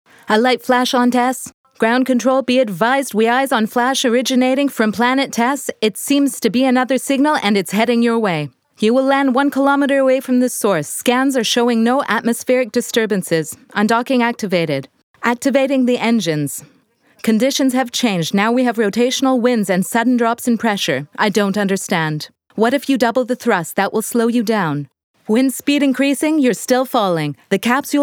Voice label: movie US